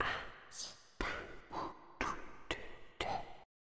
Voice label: whisper